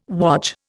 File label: "wodge", Indian